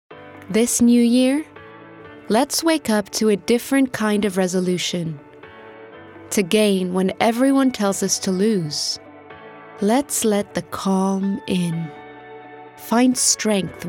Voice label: female french and moroccan arabic transatlantic accent commercial; fitbit